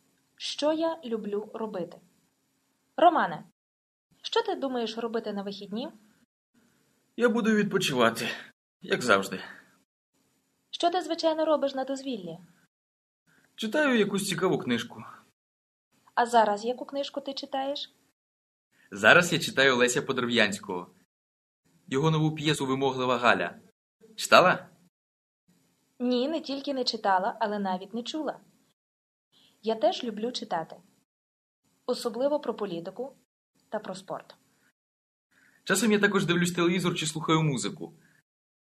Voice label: Dialogue, Ukrainian